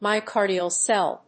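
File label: "myocardial cell", English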